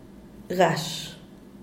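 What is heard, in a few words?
"gash", Zapotec, Díaz Ordaz; cerca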